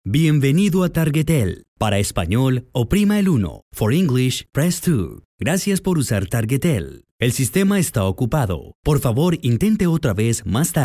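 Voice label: Spanish IVR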